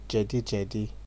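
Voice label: "jedijedi", Nigerian Pidgin, male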